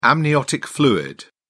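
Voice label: "amniotic fluid", English, British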